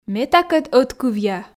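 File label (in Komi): ме такӧд ӧткывъя, Komi, female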